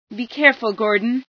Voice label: scan, female